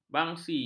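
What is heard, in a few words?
"bangsi", Malay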